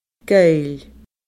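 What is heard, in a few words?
"goill", Scottish Gaelic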